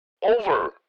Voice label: Military radio voice command "over"